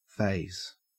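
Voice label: "faze", Australian English; pronunciations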